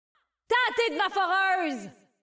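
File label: French; 守望先锋2; 终极技能; 主动/敌方释放语音; 法语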